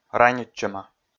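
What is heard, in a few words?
"ранитчӧма", Komi, male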